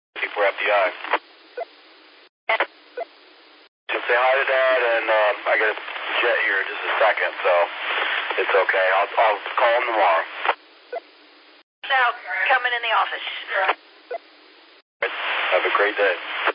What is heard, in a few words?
qso rec simplex logic